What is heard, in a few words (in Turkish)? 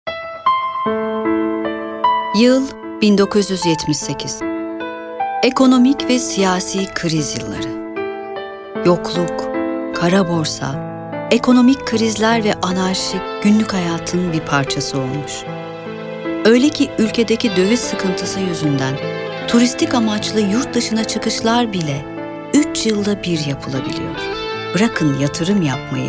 tanitim